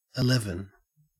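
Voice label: "eleven", Australian English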